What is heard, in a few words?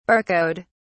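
"barcode", British English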